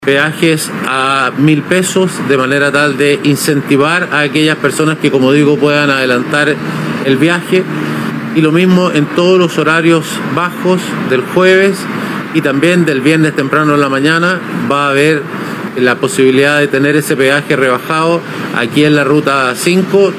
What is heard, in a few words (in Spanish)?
cuña; MORENO